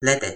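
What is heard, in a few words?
"lete", Toki Pona; linku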